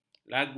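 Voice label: "lagu", Malay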